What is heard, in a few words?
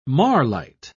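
"marlite", British English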